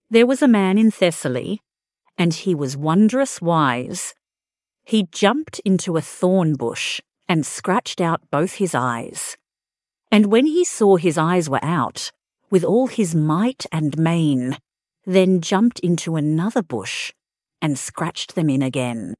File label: fem aus; There Was a Man in Thessaly